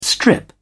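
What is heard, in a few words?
"strip", British English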